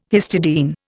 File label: "histidine", Indian